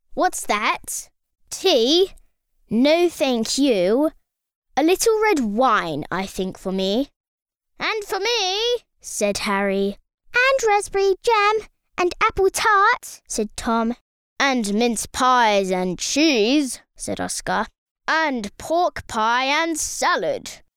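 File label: English British, girl, child voice over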